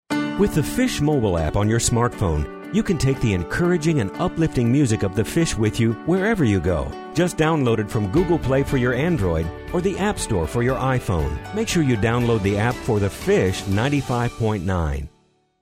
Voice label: App promo; KFSH